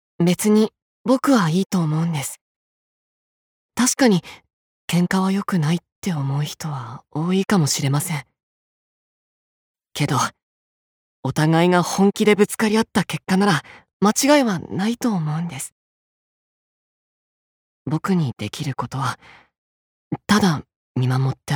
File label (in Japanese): アニメ